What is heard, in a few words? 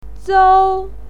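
"zou1", Mandarin Chinese syllable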